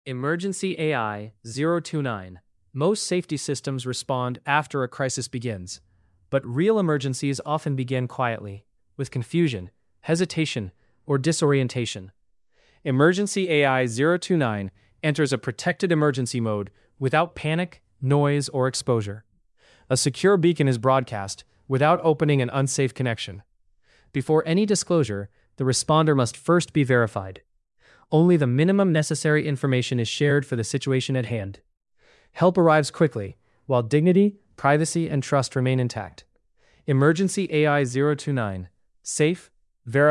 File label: english voiceover; emergencyai; AmazonNovaAIHackathon